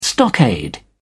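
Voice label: "stockade", English, British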